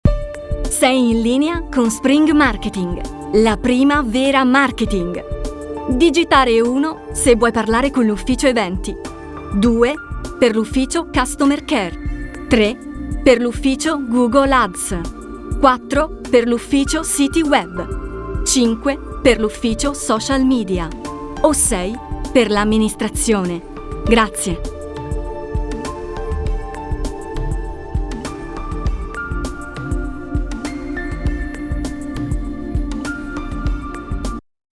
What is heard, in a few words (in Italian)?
Segreteria telefonica